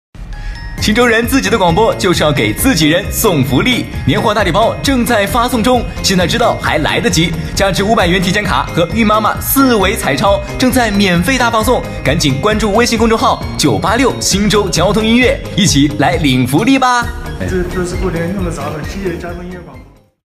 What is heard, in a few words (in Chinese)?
宣传广告配音